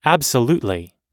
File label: everyday agreeing us